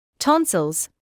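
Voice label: "tonsils" English, British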